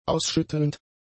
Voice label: "ausschüttelnd", German; adjectives

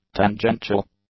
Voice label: "tangential", English; adjectives

